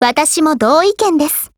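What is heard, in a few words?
Japanese; EM-2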